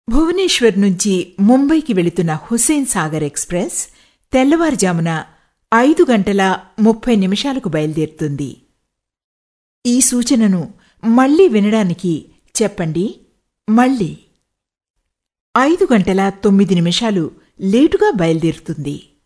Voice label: Telugu Female

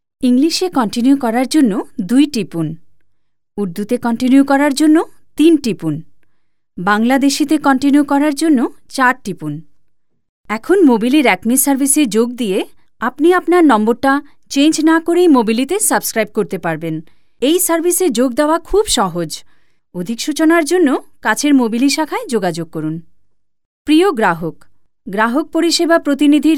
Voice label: Bengali Female

